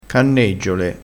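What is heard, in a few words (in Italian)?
"canneggiole", dialettale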